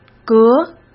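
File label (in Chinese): "格", Mandarin Chinese; chinese flashcards; hsk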